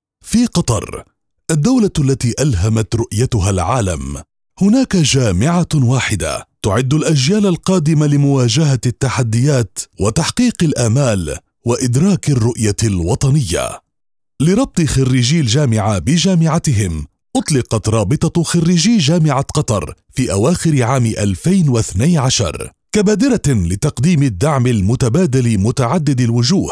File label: Arabic voice over Documentary